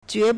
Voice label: "jue2", Chinese

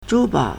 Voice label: "zhu1 bao3", Chinese

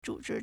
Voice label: "zhu3 chi2", Chinese

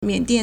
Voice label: "mian3dian4", Chinese

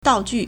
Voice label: "dao4 ju4", Chinese

